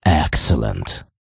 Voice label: shoutout en; jewels